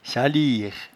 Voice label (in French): "saliere", patois jura